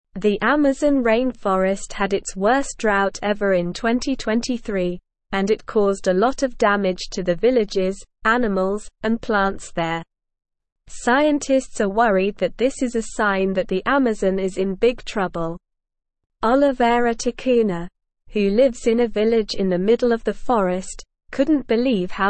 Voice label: English Upper Intermediate SLOW Reading; English Newsroom; Amazon Rainforest Faces Tipping Point as Drought Wo

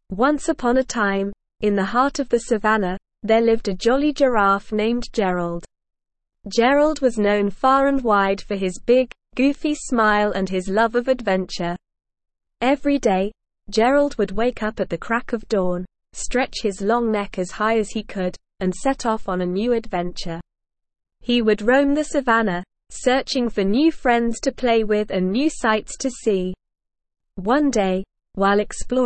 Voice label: slow speed; Gerald The Jolly Giraffe

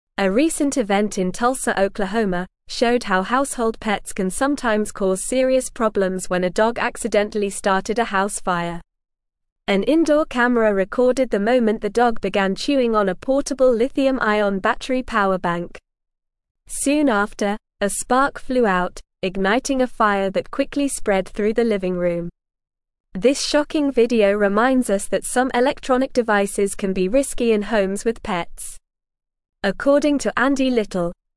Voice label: English Upper Intermediate NORMAL Reading; Pets and Household Hazards A Cautionary Tale; English Newsroom

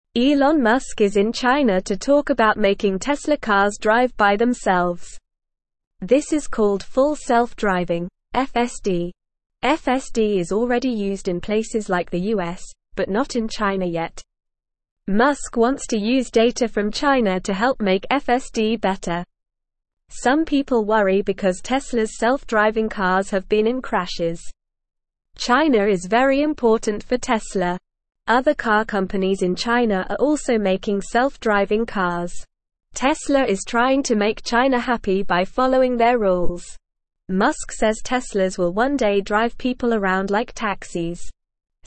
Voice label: English Beginner NORMAL Reading; English Newsroom Beginner; Elon Musk in China to Make Tesla Cars Drive Themselves

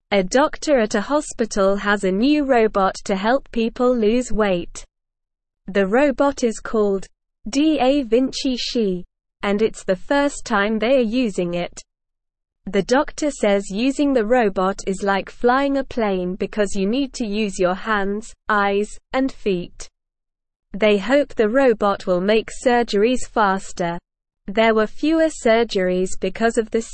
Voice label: English Newsroom Beginner SLOW Reading; New Robot Helps Hospital Do More Surgeries

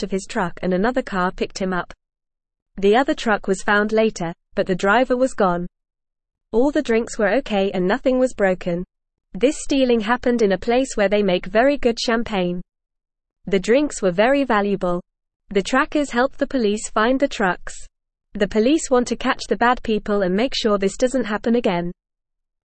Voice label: English Lower Intermediate FAST Reading; Police Catch Thieves and Save Expensive Drinks

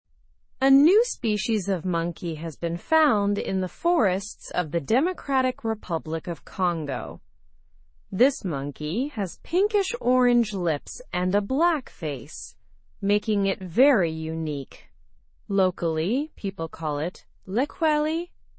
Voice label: english through news lower intermediate; unique monkey species found in drc forest

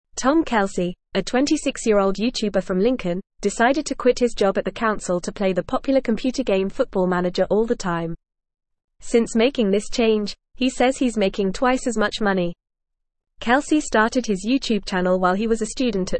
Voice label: English Newsroom Upper Intermediate FAST Reading; YouTuber Turns Passion for Football Manager into Fu

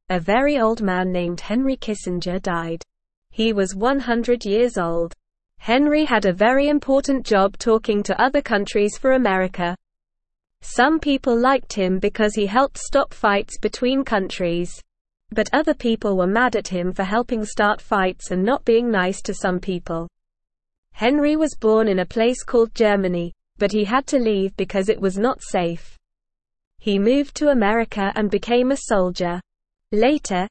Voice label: English Beginner NORMAL Reading; Henry Kissinger A Very Old Man with a Big Job; English Newsroom

